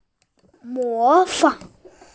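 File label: "imitate", hearing impaired; mo2fang3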